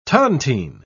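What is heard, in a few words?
"tontine", British English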